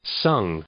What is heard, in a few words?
"sung", English; verb